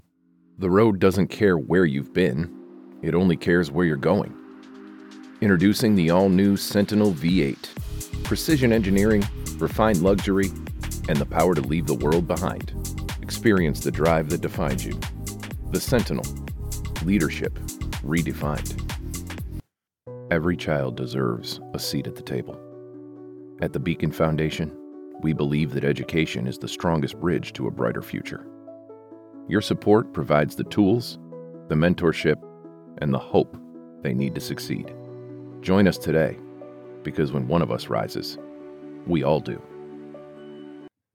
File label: Music Backed Reel